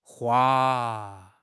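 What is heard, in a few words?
"hua1", Mandarin Chinese; HSK3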